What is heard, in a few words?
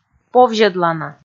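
"повзьӧдлана", Komi, female; kpv audio dict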